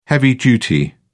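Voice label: "heavy-duty", British English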